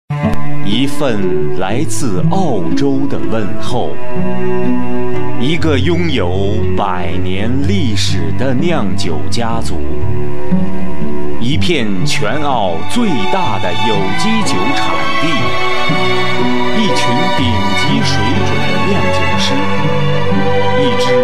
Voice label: chinese voice over male commercial

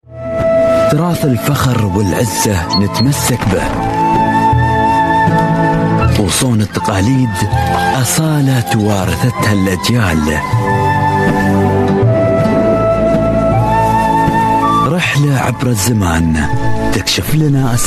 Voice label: arabic voice over male commercial

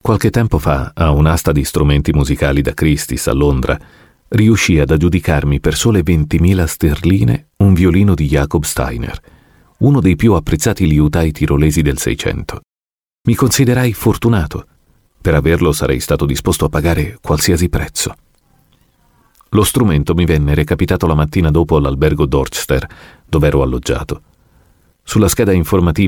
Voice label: italian voice over male audiobook

